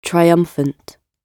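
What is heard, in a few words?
"triumphant" British English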